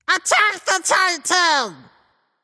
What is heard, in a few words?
vox attack m; smite; cupid